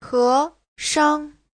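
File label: "héshang", Chinese; 合上 : gấp lại; Giáo trình Hán ngữ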